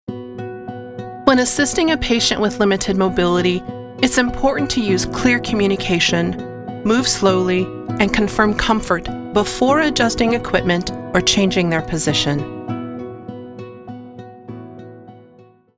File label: Medical-Healthcare - Calm, Reassuring